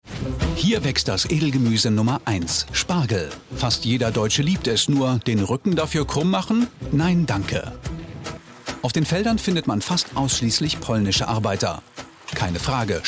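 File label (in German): voiceover, deutsch männer; sprecher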